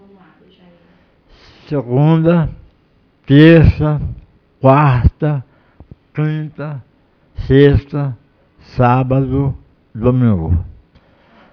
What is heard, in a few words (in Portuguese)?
Ressonância Oral